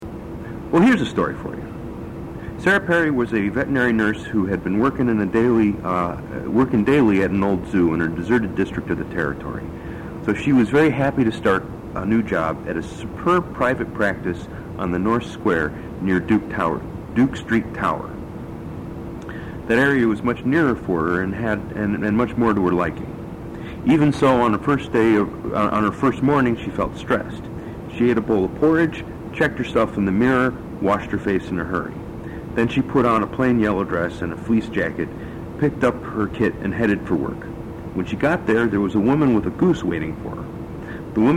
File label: dialects, chicago; speech